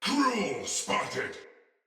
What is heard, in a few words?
See Player Shout, Battle; Barks; Soldier; Sinecure Soldier